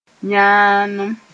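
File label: "yãanem", Mossi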